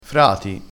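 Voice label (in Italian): "frati", vocabolario dialettale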